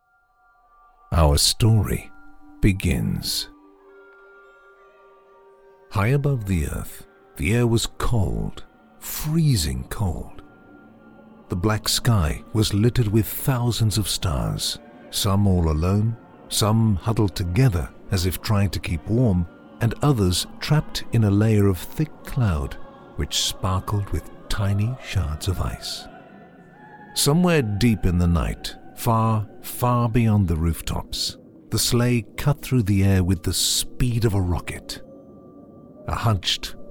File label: english british audiobooks